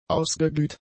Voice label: "ausgeglüht", German; adjectives